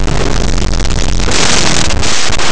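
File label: "alga", Spanish; laboratori fonetica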